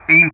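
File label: "IN", English; Ka-50; Player Messages; DCS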